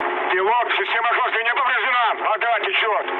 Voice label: damaged water, mood high, speech ru; War Thunder

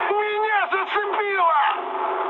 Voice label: damaged pilot, mood med, speech ru; War Thunder

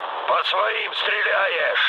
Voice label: player friendly fire, mood low, speech ru

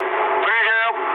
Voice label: bailout, mood low, speech ru; War Thunder

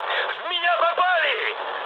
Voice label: aircraft damaged, mood high, speech ru; War Thunder

